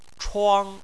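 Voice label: "chuang" first tone, Chinese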